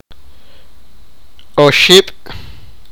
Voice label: sipakapense; three